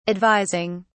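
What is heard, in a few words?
"advising", British English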